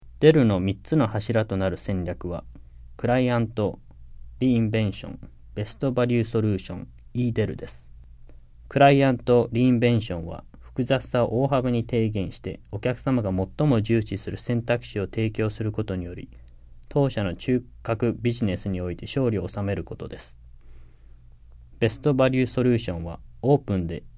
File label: JAPANESE; delltranslations